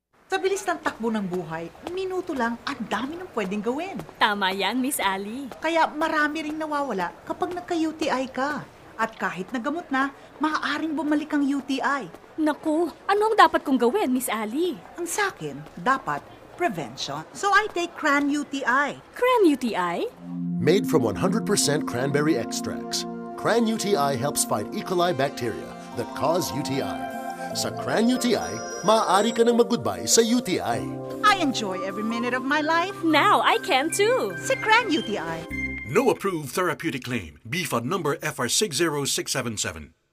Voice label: Radio Ad; Cran UTI